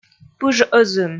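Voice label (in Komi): "пыж ӧзын", Komi, female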